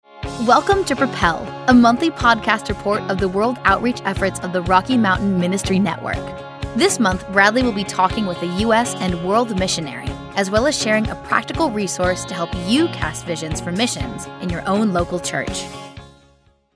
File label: podcast with music; Propel